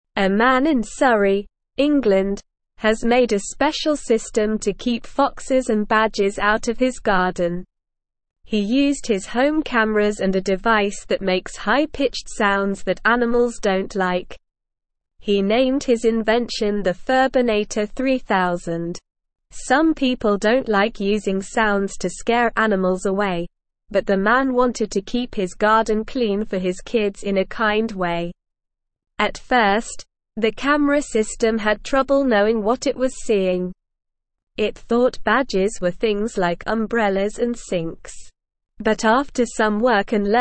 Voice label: English Lower Intermediate SLOW Reading; Man Invents Furbinator 3000 to Keep Animals Away; English Newsroom